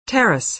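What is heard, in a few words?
"terrace", American English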